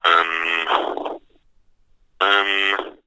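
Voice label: human male english says "umm" x2 telephone receiver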